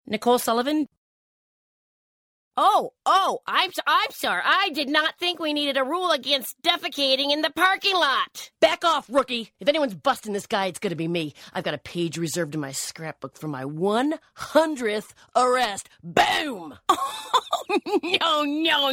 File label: animation; Innovative LA